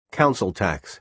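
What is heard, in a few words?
"council tax", American English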